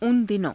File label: "una dino~", hindi